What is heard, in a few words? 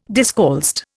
"discalced", Indian